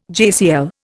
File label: "JCL", Indian